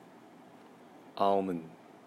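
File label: "almond", Malaysian, male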